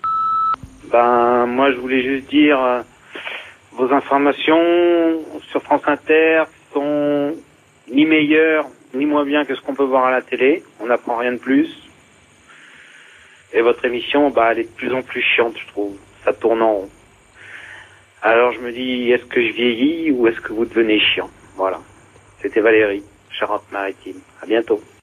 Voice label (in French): repondeur; La-bas chiant